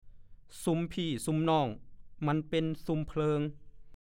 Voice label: ภาษาโคราช; ซุมพี่ซุมน่อง มันเป็นซุมเพิง / 1. (สำนวน) เครือญาติพี่น้องย่อมพึ่งพาอาศัยกันได้

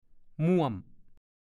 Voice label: "ม่วม", ภาษาโคราช; น่วม

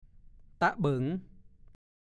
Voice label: ตะเบิ๋ง, ภาษาโคราช; เอาของวางบนฝ่ามือ ตั้งแขนยกมือขึ้นในระดับไหล่ขึ้นไป

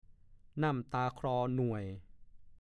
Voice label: "น่ำตั๊บ", ภาษาโคราช; น้ำตับ (อาหารชนิดหนึ่ง ใช้เครื่องในวัวหรือควายยัดไส้อย่างไส้กรอก)

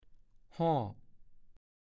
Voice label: "ห่อยอยู่ก๊ะหลั๊กตั๊กก็เต็มไม่ตั๊กก็เต็ม", ภาษาโคราช; (ปริศนาคำทาย) มะพร้าว

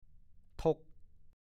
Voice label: "ทุ่ก", ภาษาโคราช

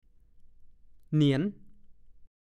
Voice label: "เหนียน", ภาษาโคราช; เกี่ยง เกี่ยงงอน

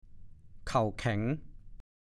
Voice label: "เข่าแข็ง", ภาษาโคราช

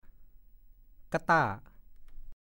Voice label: "กะต้า", ภาษาโคราช; กะต้า / 1. ตะกร้า

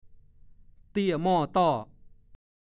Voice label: "เตี้ยม่อต้อ", ภาษาโคราช; 1. เตี้ยอย่างผิดส่วนคือคอสั้นขาสั้น

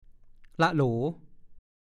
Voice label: "ล่ะโหลง", ภาษาโคราช

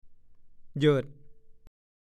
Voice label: "เหยิด", ภาษาโคราช; หย่ง อาการเดินของคนเท้าพิการต้องเขย่งเท้าอีกข้างหนึ่ง อาการเดินจรดปลายเท้า

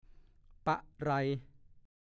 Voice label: "ปะไร", ภาษาโคราช; เป็นไร ขนาดไหน แค่ไหน

